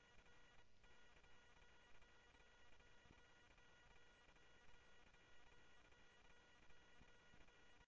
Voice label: scanner audio trunk

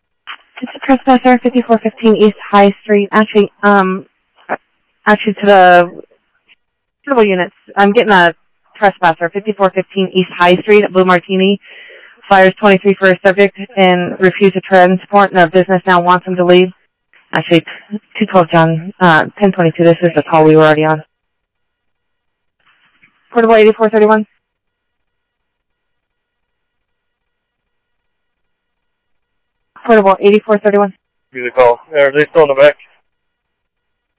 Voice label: Phoenix PD, scanner audio

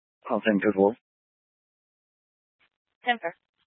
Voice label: Scottsdale Police, Simulcast

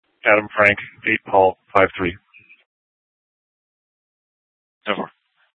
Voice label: Dispatch; Scottsdale

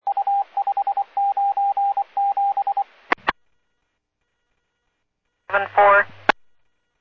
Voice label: Medevac, scanner; NativeAirAmb

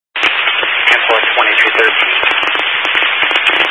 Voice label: Highway Patrol, scanner; DPS EAST; Dist 06 Casa Grande

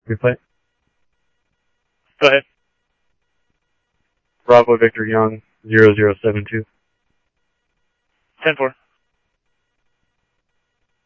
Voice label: PD Police, scanner audio

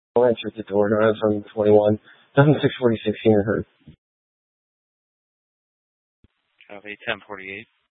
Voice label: Mesa PD Dispatch; TOPAZ Rgnl Wireless Co-op (TRWC); Fiesta

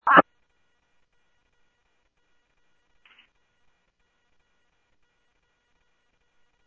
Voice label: Police, scanner audio trunk; H-Scottsdale

